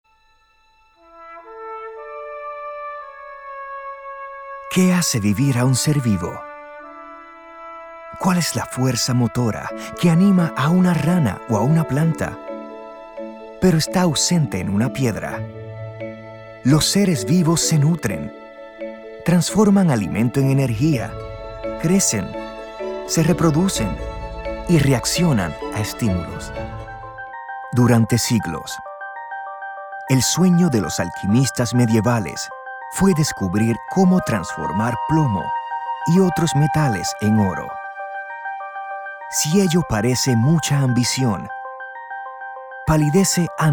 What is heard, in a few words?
Narration Documentary